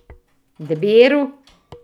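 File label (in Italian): "pera", Italian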